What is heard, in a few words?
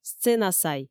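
"сценасай", Komi, female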